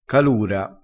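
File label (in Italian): "calura", dialettale crespinese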